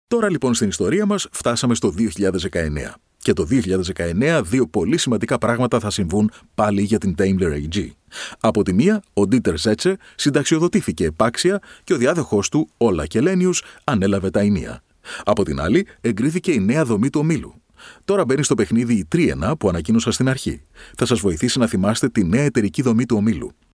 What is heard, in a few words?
greek voice audiobook